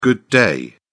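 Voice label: "good day", British English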